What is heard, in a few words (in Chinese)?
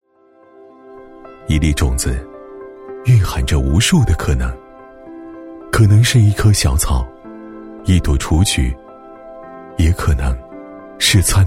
男 走心 支教 温暖深情风格; 年轻浑厚 质感磁性; 99支教